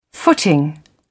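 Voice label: "footing", British English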